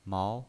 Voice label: "mao2", pinyin